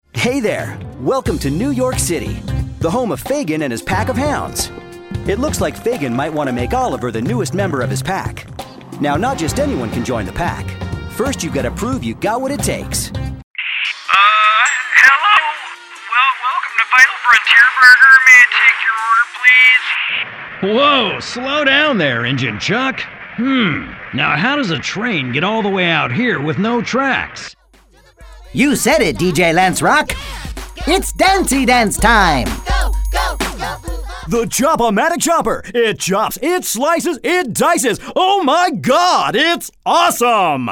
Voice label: animation, animation voices men